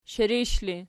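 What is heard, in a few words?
shirish ergative, Archi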